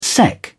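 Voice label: "sec", British English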